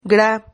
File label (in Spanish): "gra", Silabas